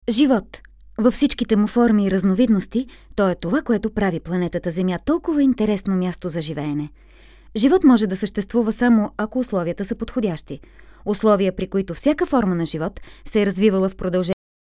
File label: bulgarian f narration, voice; voice demos